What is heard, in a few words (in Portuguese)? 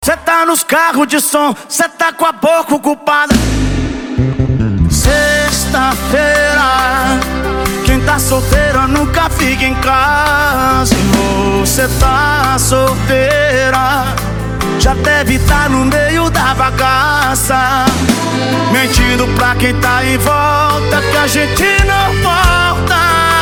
locutor a voz magnifica